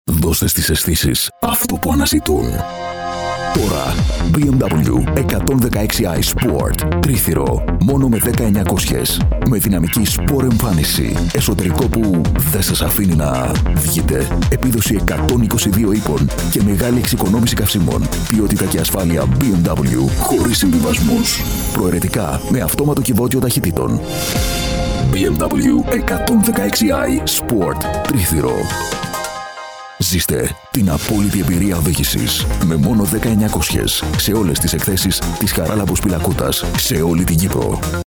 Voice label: greek, Greek voice overs, Male